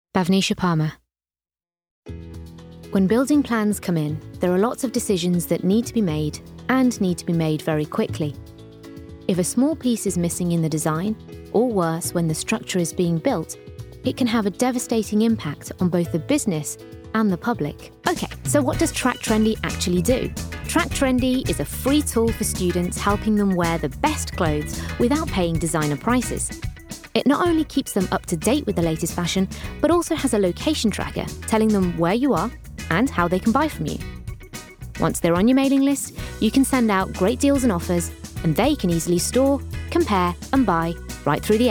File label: Corporate Reel